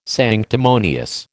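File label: "sanctimonious", American English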